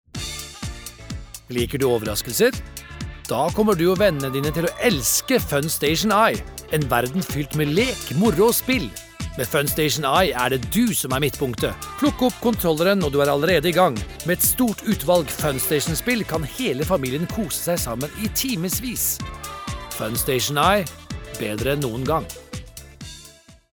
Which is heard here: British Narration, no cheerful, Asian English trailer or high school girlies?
no cheerful